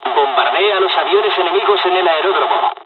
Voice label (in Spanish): dialogs spanish; War Thunder